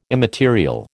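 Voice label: "immaterial", American English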